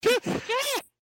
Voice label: Muffled Just Stop

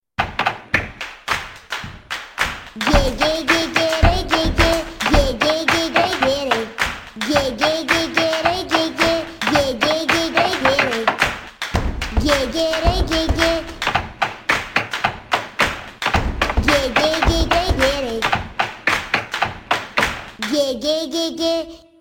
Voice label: Comercial

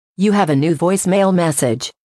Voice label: Siri Voice Mail

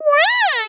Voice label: Robot Voice

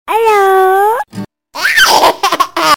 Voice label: Baby Hello

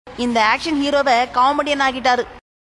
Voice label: Tamil Dialogue; Ben10

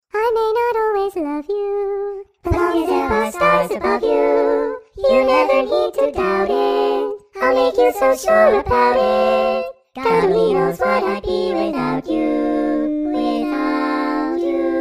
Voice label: Chipmunk

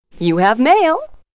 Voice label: Mail Alert Female

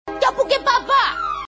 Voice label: Funny; Tarak Mehta

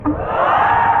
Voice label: Tamil Comedy

